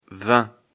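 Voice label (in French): "vingt", French; afrikalan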